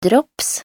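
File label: "drops", Norwegian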